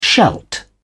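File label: "shalt", British English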